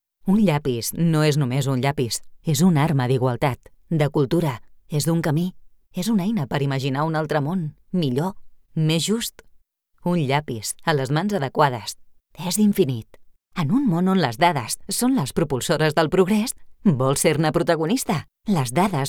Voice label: CATALAN, Locutora